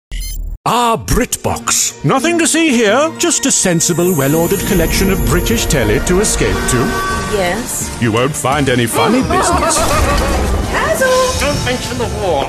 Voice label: Voice Narrator voice